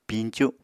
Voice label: "piñcu", Apatani